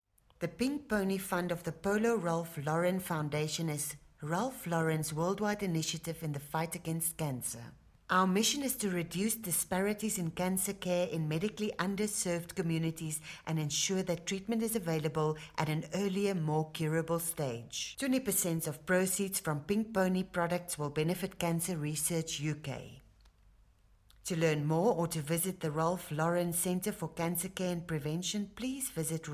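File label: South African English, Commercial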